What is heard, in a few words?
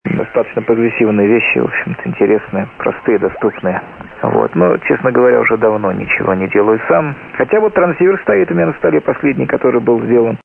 FT-1000